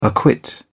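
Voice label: "acquit", British English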